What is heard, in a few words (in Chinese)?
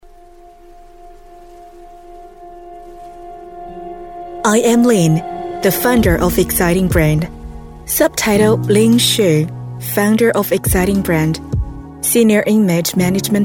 英文 宣传 人物 介绍